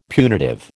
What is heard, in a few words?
"punitive", American